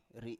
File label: "ri7", Biak